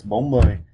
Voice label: "forty" vietnamese